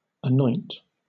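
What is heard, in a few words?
"anoint", British English; pronunciations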